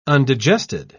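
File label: "undigested", British English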